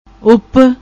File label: "Upp", Punjabi